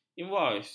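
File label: "invois", Malay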